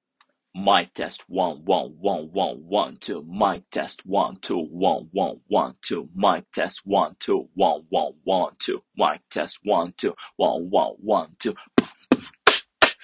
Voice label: Mic Test AirPods Max